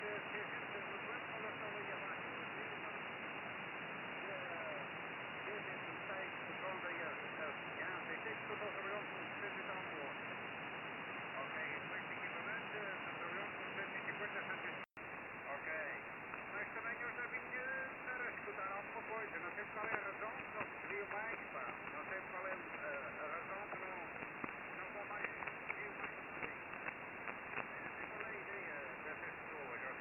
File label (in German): USB Amateurfunk; G33DDC; 14205khz